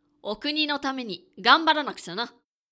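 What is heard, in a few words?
male; クール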